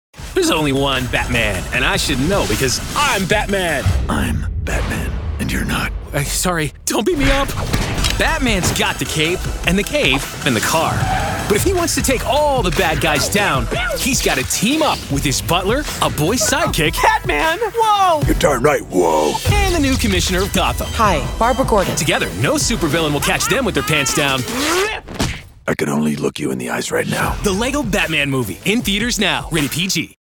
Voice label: Trailer Young children, VOICEOVER, english american